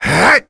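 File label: Vox Attack, voices heroes English; kingsraid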